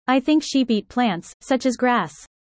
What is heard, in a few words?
user fast